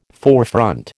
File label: "forefront", American